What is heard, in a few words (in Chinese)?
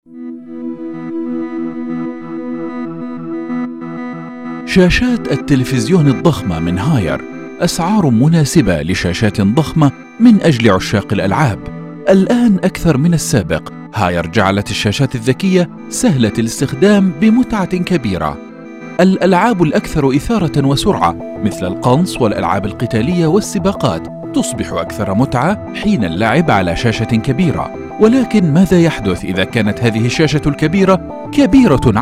阿拉伯语男 电脑介绍; 海尔